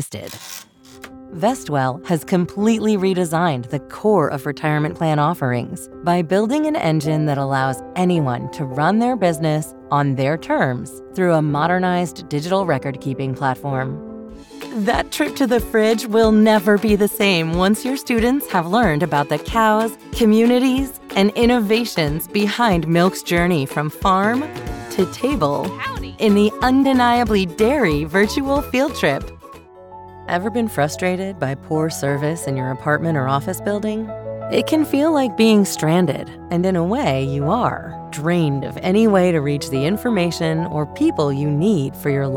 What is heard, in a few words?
english american narration